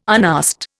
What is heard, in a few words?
"unasked", Indian